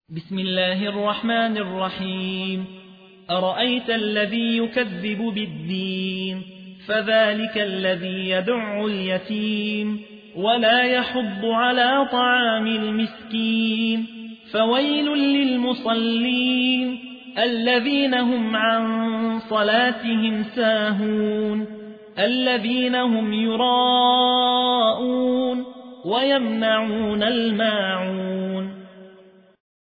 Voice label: ترتیل; یحیی حوی; سوره ماعون

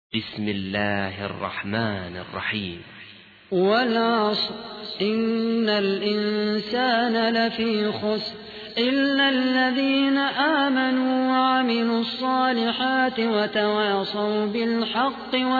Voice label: ترتیل سوره عصر; خالد قحطانی

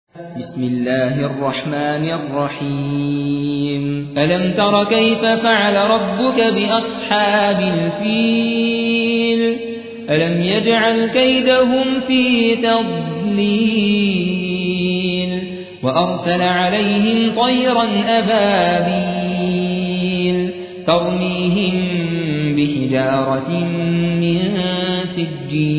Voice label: ترتیل سوره فیل

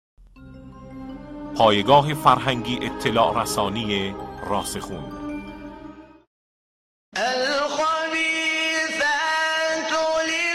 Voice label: تلاوت مجلسی سوره مبارکه نور; آیه 26

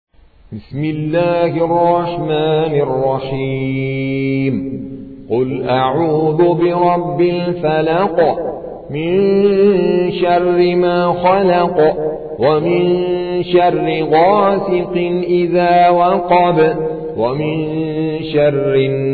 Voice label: ترتیل قاری هندی سوره فلق

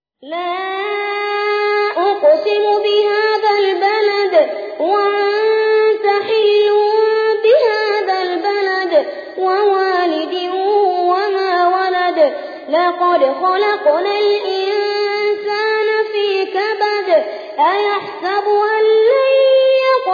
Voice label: ترتیل سوره بلد; محمد البراک

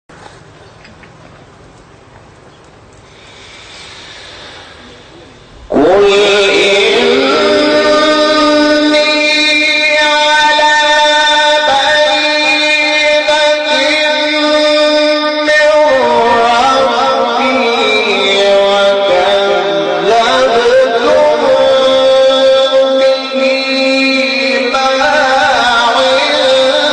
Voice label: تلاوت آیه 57 سوره انعام; محمود شحات انور

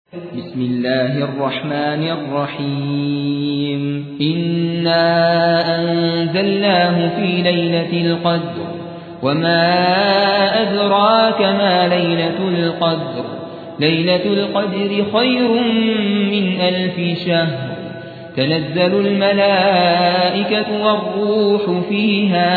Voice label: ترتیل سوره قدر

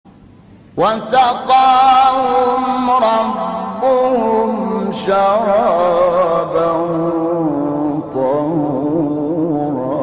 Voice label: فرازی زیبا از تلاوت سوره انسان; استاد شحات انور

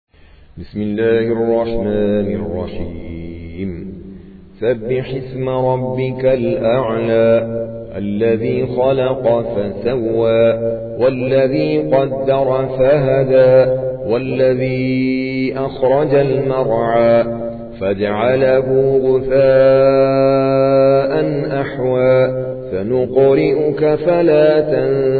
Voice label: ترتیل قاری هندی سوره اعلی

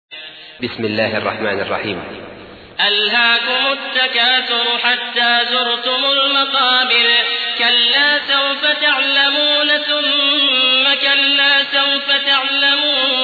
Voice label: ترتیل; عبدالعزیز الأحمد; سوره تکاثر

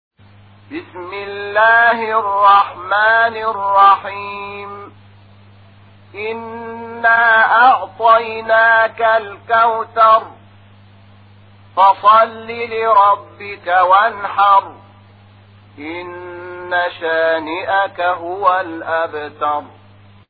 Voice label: ترتیل قرآن کریم سوره کوثر; محمود شحات انور

